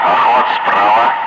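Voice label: Russian speech, Ka-50 Wingman; Messages pincer right; DCS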